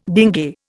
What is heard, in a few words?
"dinge", Indian